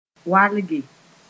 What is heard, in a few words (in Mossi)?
"walge", Mooré